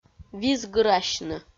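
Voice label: "визгырасьны", Komi, female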